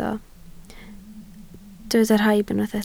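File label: "rhaib" Welsh, spoken word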